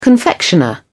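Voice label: "confectioner", British English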